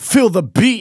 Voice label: Phrase Male Rap; Vengeance Samples